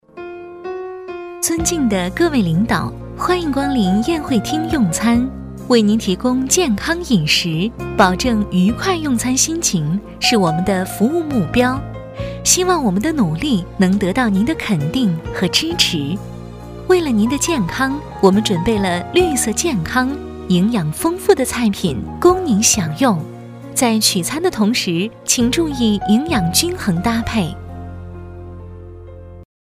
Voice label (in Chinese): 广播 酒店